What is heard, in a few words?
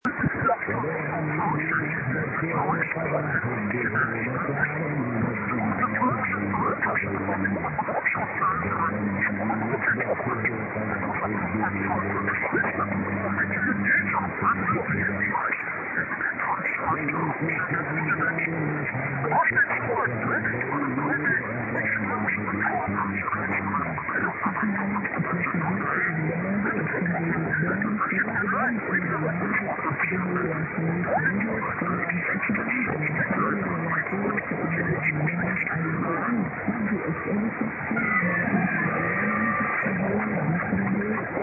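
783 Arabic station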